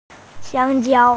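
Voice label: "xiāng jiāo", Mandarin Chinese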